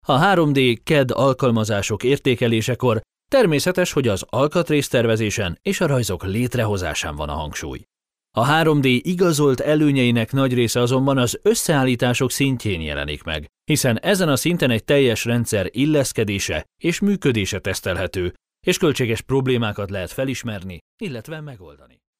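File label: Hungarian, male, explainer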